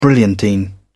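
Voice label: "brilliantine", British English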